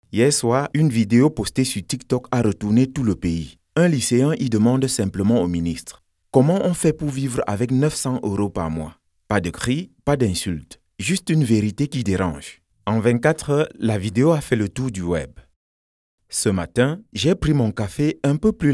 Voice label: Podcast